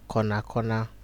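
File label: "cornercorner", Nigerian Pidgin, male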